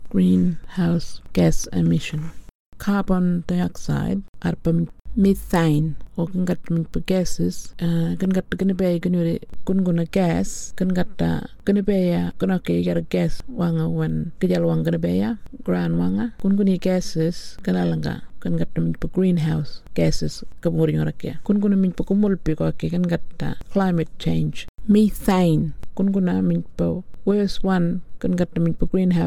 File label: Burarra; GREENHOUSE GAS EMISSIONS